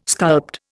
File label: "sculpt", Indian